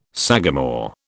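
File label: "sagamore", British English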